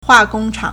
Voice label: "hua4 gong1 chang3", Chinese

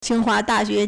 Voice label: "qing1 hua2 da4 xue2", Chinese

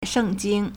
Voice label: "sheng4jing1", Chinese

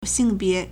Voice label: "xing4bie2", Chinese

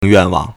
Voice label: "yuan4wang4", Chinese

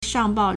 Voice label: "shang4bao4", Chinese

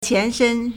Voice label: "qian2 shen1", Chinese

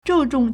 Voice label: "zhu4 zhong4", Chinese

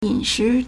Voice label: "yin3 shi2", Chinese

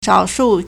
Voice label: "shao3shu4", Chinese

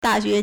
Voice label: "da4 xue2", Chinese

